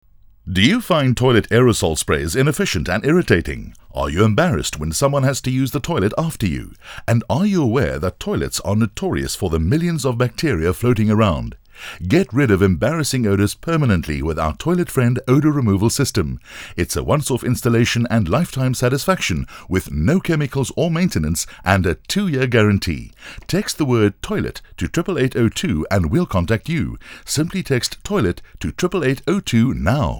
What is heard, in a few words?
radio